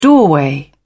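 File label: "doorway", British English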